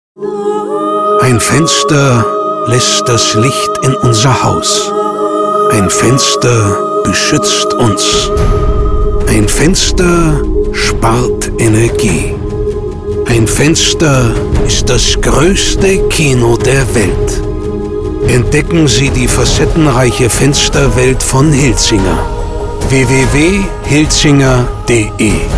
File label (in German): Radiowerbespot; hilzinger